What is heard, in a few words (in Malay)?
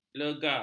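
"legar", Malay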